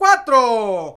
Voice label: "Quatro!", Vocals; Roland BK-5